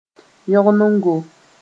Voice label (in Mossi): "yõgnego", moore